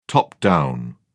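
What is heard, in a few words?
"top-down", British English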